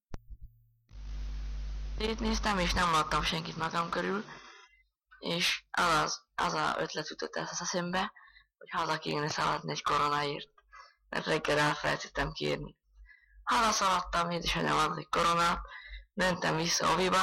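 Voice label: dialect collection; nyelvjaras gyujtesek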